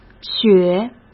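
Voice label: "雪", Mandarin Chinese; hsk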